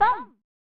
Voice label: "Hun!" (Female); pro mahjong kiwame 2; snes

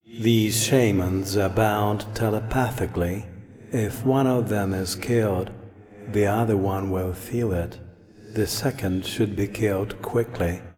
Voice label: Voice Monolog

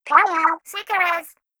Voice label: Voice Greeting; Splatoon

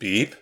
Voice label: Voices intrigued; The Looker

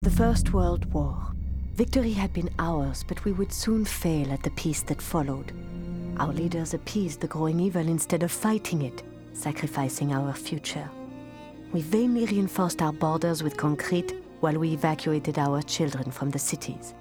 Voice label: Narration; Medal of Honor Underground

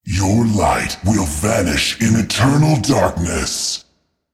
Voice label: Voices Phrase Hi; mighty party 2017 gamerip pc ios android; Void Hi Pal

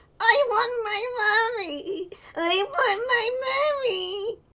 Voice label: Male Kid Beggings

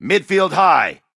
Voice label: Voices Character Male

